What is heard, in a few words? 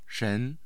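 "shen2", Mandarin Chinese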